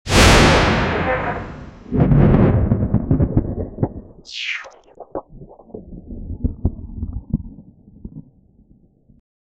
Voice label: an audio stream of speech, synthesizer

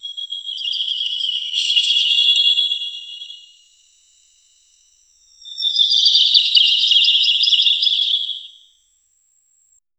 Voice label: spooky eerie faint whispe

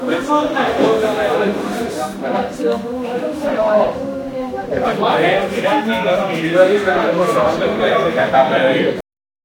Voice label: people talking; dialogue

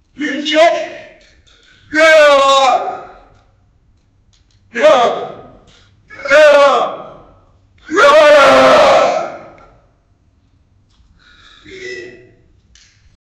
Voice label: a man's gruff voice in, echo

